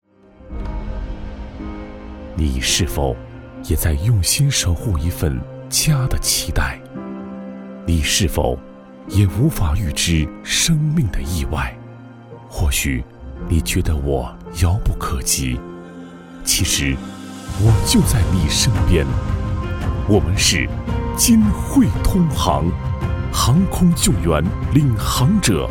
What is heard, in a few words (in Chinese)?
男 宣传片 磁性温厚; 金汇通航